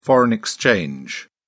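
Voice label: "foreign exchange", British English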